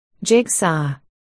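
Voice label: "jigsaw", spoken word, articulation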